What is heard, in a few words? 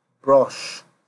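"brush", Nigerian Pidgin, male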